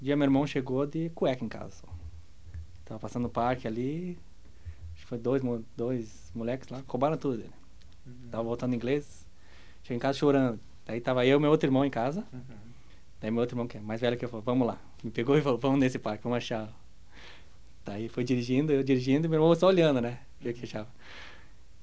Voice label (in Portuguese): narrativa